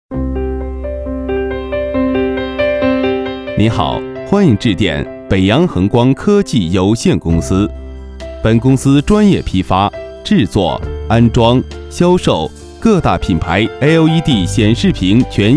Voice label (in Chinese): 彩铃